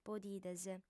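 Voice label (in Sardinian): "podides", female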